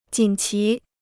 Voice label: "锦旗", Chinese